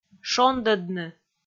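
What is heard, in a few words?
"шондӧдны", Komi, female; kpv audio dict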